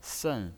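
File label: "sen", Mandarin Chinese pinyin voice, fourth tone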